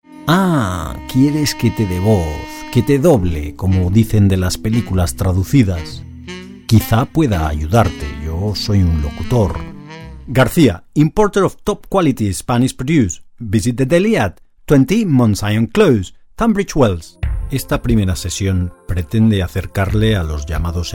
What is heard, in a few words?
voice overs short samples